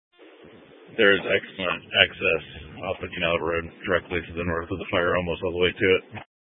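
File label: Incident Tactical 2, Scanner audio recording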